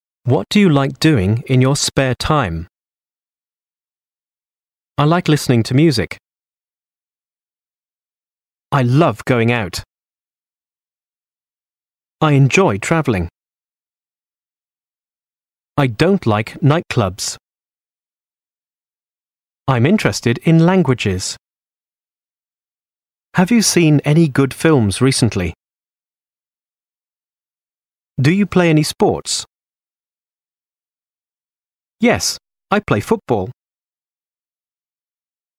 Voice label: English Sentences